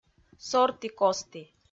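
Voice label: "сорті-кості", Komi-Zyrian, female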